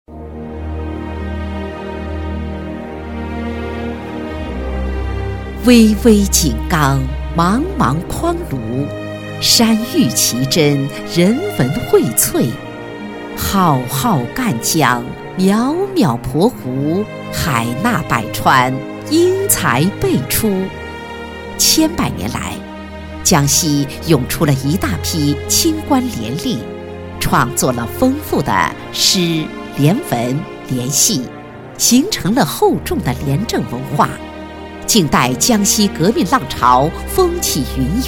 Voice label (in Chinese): 女 专题 成熟稳重; 江西廉政